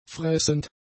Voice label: "fressend", German; adjectives